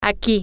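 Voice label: over around here, Spanish, female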